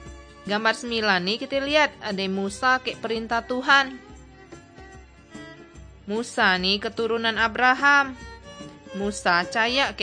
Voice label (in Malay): kabar baik good news malay bangka